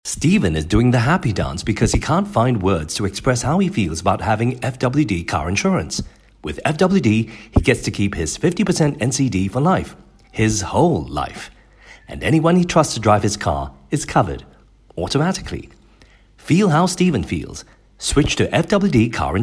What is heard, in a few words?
Asian English Insurance